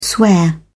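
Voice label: "swear", British English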